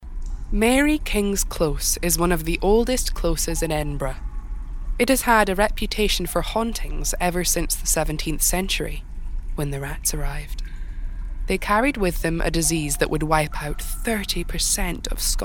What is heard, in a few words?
Narration, regional accents; 20s-40s. Female. Scottish. Based in Scotland.